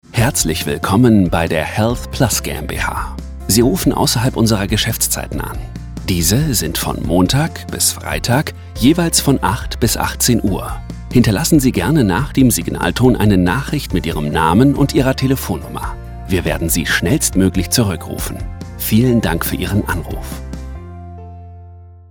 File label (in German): telefonansage gesundheit der vertrauensvolle ab ausserhalb geschaeft musik